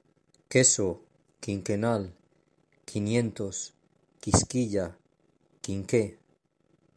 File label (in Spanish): sonido letra q